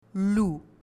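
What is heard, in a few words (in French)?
"loups", French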